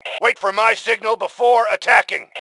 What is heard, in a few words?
Attack Attack Wait, Male; T2 Male 05